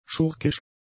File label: "schurkisch", German; adjectives